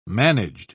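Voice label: "managed", English, uk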